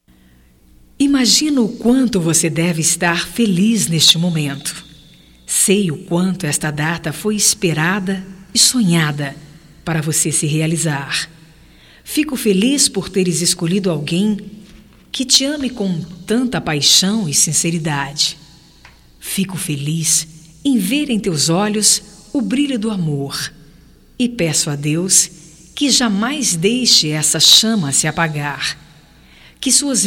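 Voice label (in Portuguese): CUMPR PELO CASAMENTO feminino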